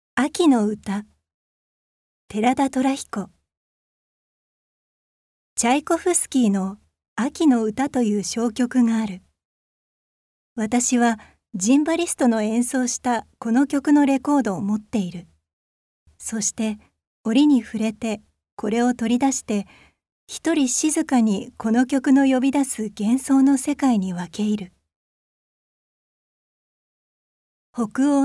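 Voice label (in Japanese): ナレ recitation, ボイスサンプル; 女性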